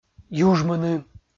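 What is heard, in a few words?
"южмыны", Komi, male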